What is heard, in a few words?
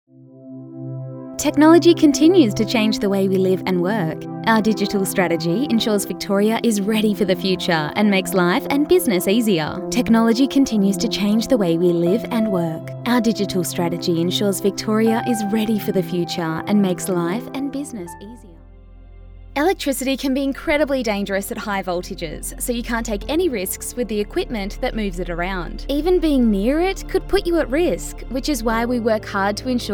Corporate, Bubbly and Friendly, Warm Professional Voice, Casual and Conversational Female Voice